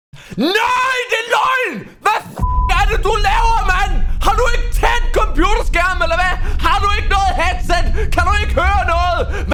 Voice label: danish rage

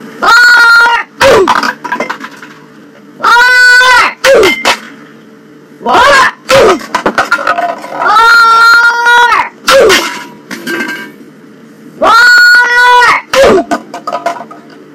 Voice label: oof x5 with little crashes; fore

